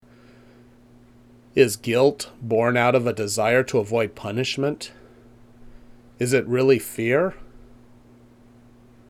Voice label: Voiceover